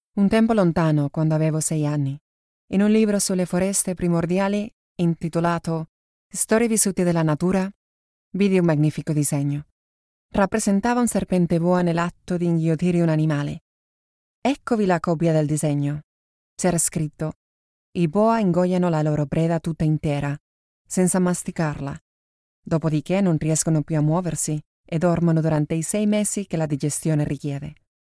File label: Italian